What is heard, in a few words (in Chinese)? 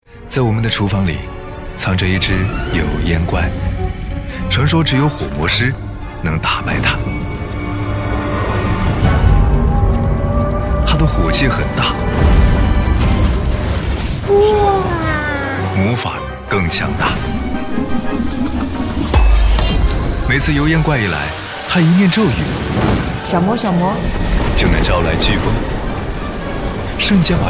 男 大音 家电 油烟机